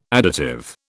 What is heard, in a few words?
"additive", British